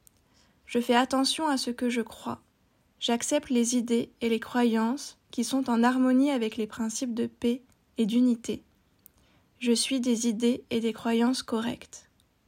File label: I Am Correct Ideas and Beliefs French Female